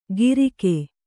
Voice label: "ಗಿರಿಕೆ", Kannada